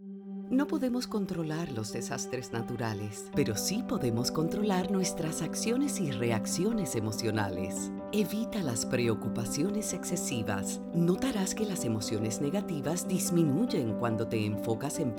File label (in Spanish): PSA Consejos Radio; FAR